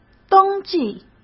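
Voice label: "登记", Mandarin Chinese; chinese-flashcards; hsk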